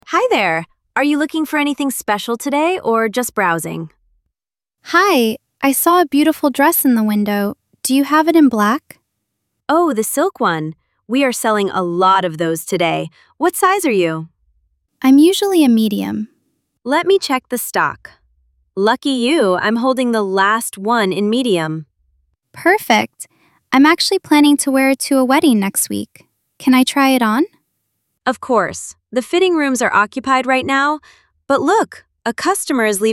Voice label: Conversation; you look great